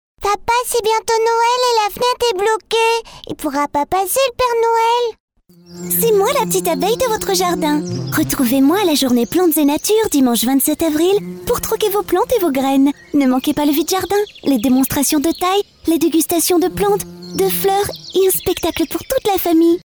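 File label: French France, female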